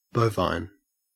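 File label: "bovine", Australian English; pronunciations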